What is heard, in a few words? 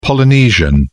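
"polynesian", British English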